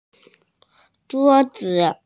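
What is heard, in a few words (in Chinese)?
"zhuo1zi5", Mandarin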